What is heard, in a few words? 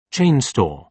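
"chain store", British English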